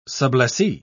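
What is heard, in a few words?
"sublessee", British English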